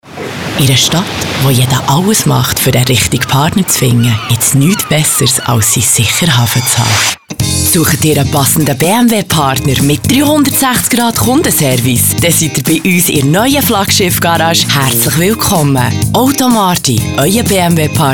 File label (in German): Radiospot Auto Flaggschiff